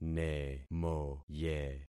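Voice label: "nemoye", concatenated syllables; Affixing experiment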